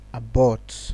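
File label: "abort", Nigerian Pidgin, male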